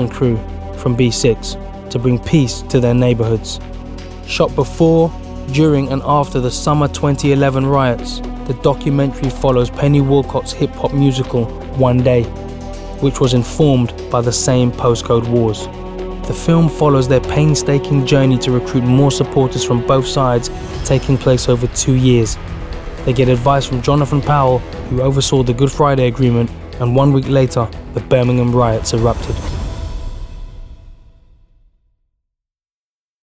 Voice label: Gangs Doc; Birmingham

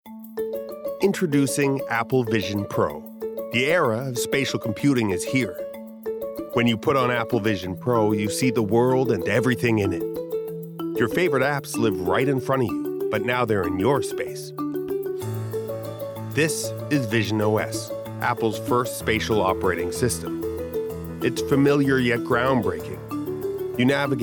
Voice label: Corporate; Apple

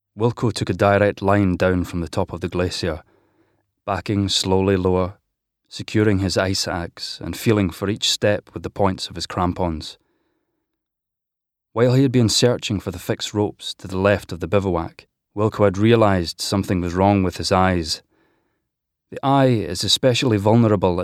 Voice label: Audiobook; No Way Down

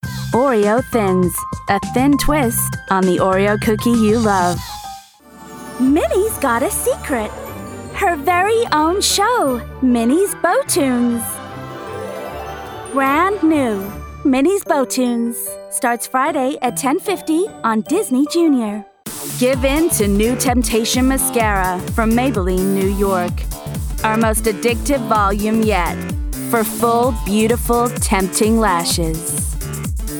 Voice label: US commercials

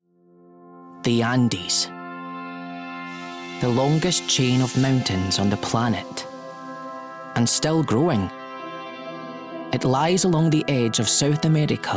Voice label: Documentary

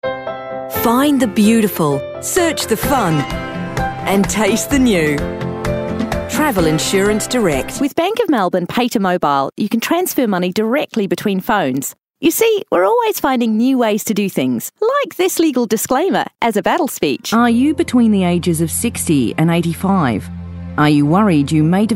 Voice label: Commercial; Voicereel

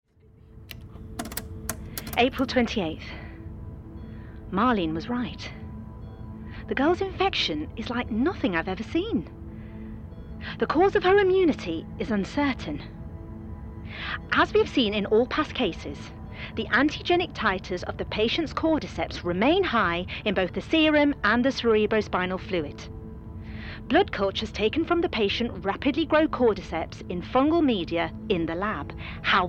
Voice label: Character; RP Doctor’s Journal

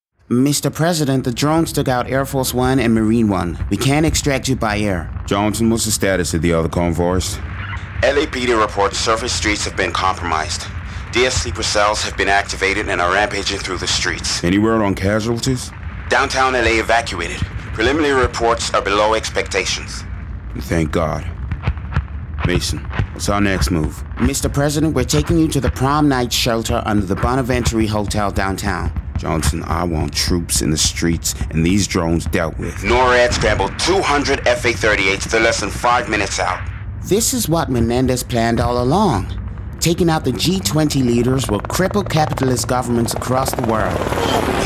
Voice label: Game Characters

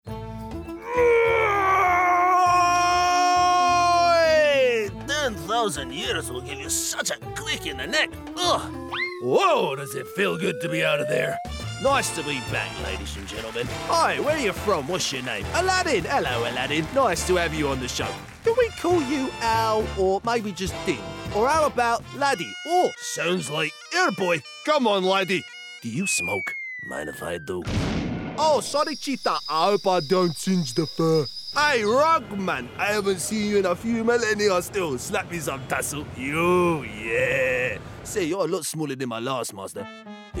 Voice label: Character

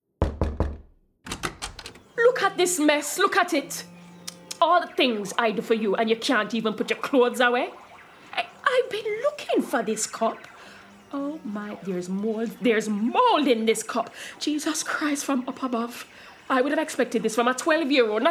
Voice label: West Indian Mother

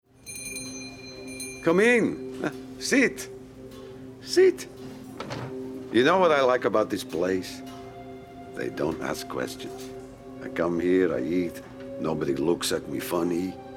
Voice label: Gaming Russian Gangster

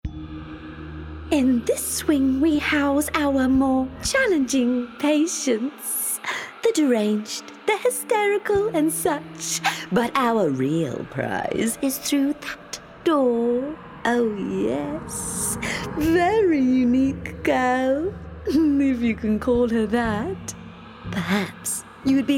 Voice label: Evil Nurse RP

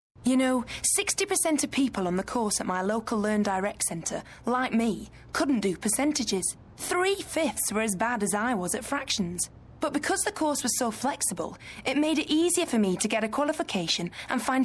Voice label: accents only

